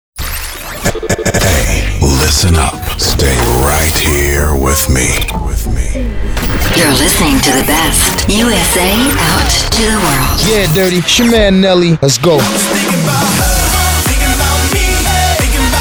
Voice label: Promo British Voice Over UK